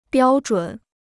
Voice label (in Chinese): "标准", Chinese